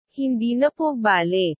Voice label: "Hindi na po bale", filipino language